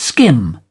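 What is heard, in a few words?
"skim", British English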